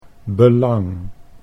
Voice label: "belang", Dutch

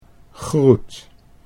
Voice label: "groet", Dutch

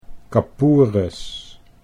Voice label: "kapoeres", Dutch

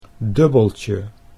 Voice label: "dubbeltje", Dutch

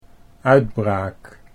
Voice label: "uitbraak", Dutch

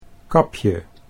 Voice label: "kapje", Dutch

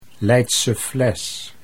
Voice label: Leidse Fles, Dutch

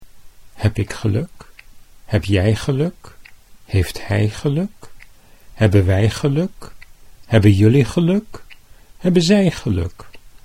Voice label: "heb ik geluk", Dutch

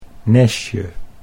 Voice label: "nestje", Dutch

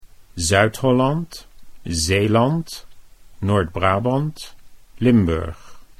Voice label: Zuid Holland, Dutch

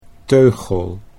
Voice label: "teugel", Dutch

